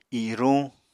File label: "iruñ", Apatani